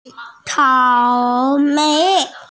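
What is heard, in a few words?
"strawberry", hearing impaired; cao3mei2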